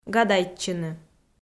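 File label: "гадайтчыны", Komi, female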